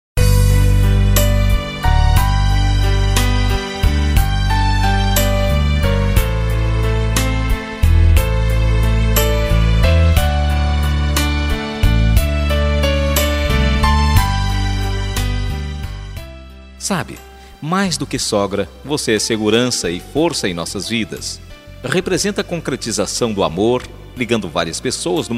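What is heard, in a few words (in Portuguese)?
telemensagem, male; ANIV SOGRA MASC; aniversario sogra sogro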